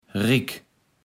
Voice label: "rick", Platt

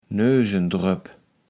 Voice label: "Nösendroep", Platt

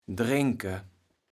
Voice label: "dränke", kaevels platt

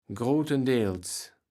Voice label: "grotendels", kaevels platt

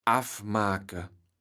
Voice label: "affsmake", kaevels platt

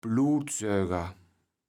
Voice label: "Bloodseuger", kaevels platt

